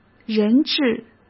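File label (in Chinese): "人质", Mandarin Chinese; chinese-flashcards; hsk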